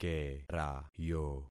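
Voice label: "kerayo", concatenated syllables; Affixing experiment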